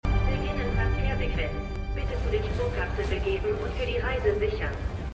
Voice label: Transfercomputerstimme; Foundation; Apple+

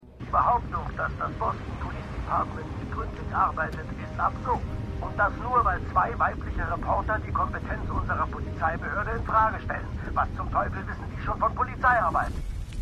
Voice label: Radiokommentator; Boston Strangler

